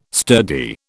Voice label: "sturdy", British